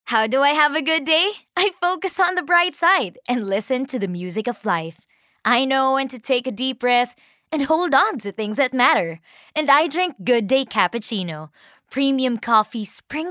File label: English (Selling, Enthusiastic)